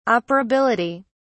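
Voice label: "operability", British English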